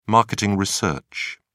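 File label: "marketing research", British English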